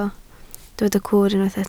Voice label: "cwr", Welsh